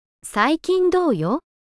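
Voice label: "最近どうよ？", Japanese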